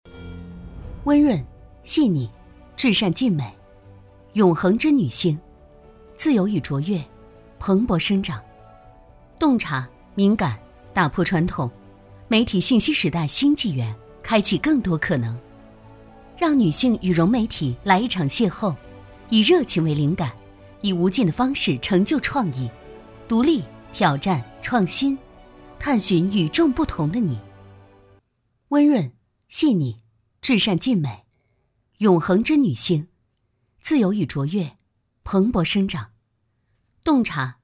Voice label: 女性融媒体

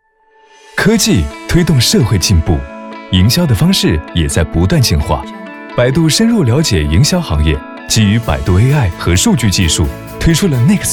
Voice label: 高端男科技商务; 百度

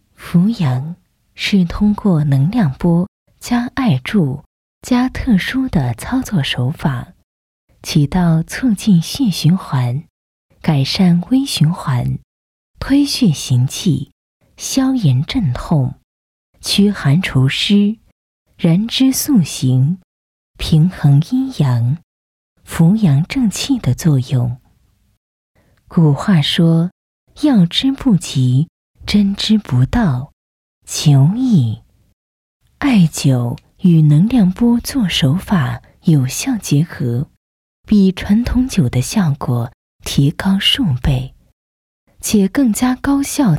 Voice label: 专题 冥想 舒缓

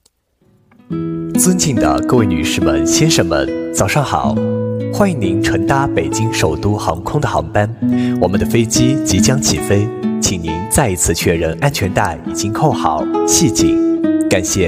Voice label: 乘机温馨提示

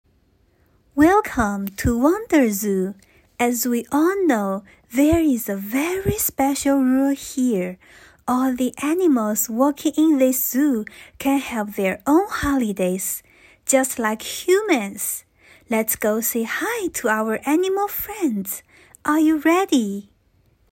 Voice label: 舞台剧开场

